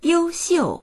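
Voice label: "you1xiu4", Chinese; 优秀; 優秀な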